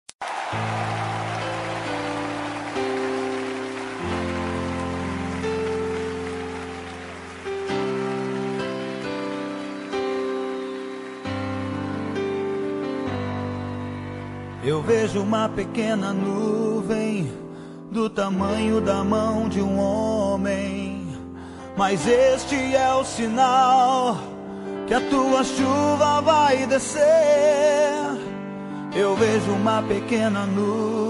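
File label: male; pastor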